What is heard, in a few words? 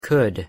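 pronunciation "could", English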